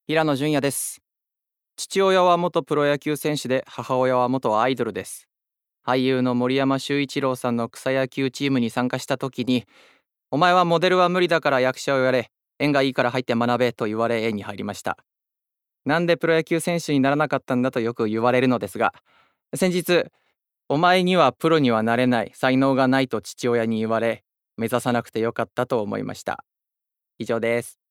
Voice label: Freetalk, male voice

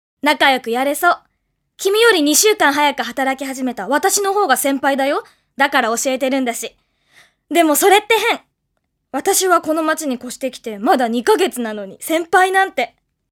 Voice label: female voice

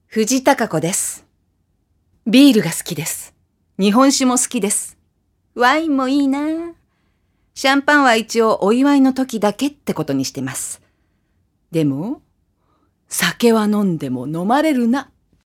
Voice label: free talk, female voice

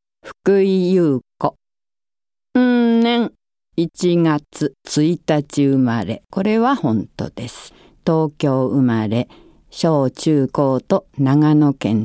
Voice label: female voice freetalk

